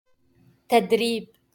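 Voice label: "تدريب" Arabic; training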